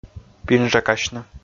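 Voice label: "пинжакасьны", Komi, male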